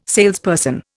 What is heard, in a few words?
"salesperson", Indian